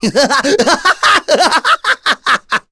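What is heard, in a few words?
vox Happy, voices heroes English; kingsraid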